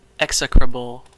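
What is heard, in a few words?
"execrable", American English; pronunciations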